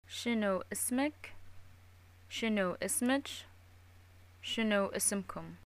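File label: what is ur name, Arabic, Bahrain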